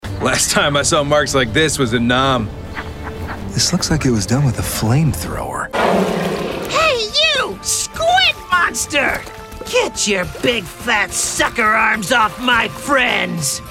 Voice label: animation men, voices men